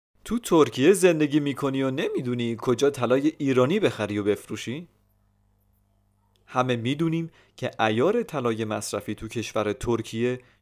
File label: narrator